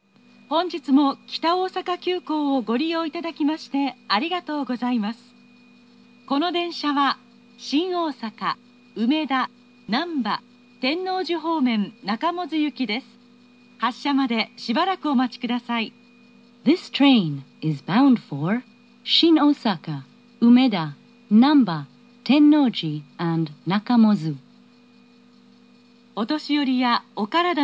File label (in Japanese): nakamozu yuki annai, subway osaka